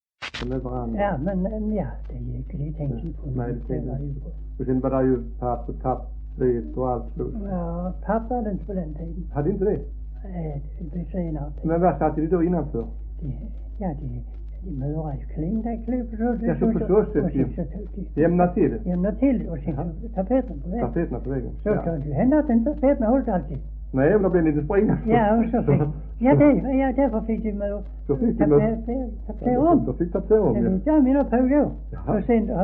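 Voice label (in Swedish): Höör Skåne, dialekter; Folkminnen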